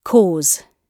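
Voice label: "cause", British English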